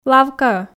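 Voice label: "лавкаӧ", Komi, female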